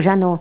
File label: "zhenu", Tula